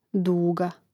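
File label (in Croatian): "duga", hrvatski; mreznik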